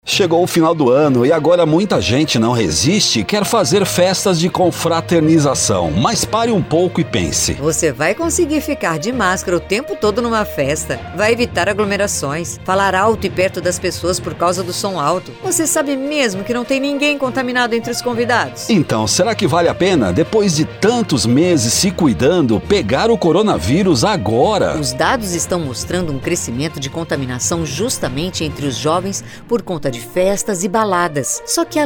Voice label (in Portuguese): spot alerta; coronavirus; Linha Alerta; Radio